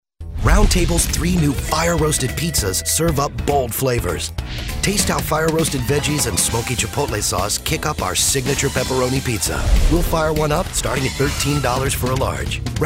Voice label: commercial